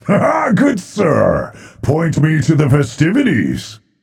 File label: taunt directed; chiron; bacchus